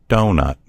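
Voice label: "doughnut", American English; gcompris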